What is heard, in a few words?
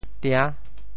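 "tia~6", Teochew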